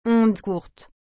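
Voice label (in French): "ondes courtes", French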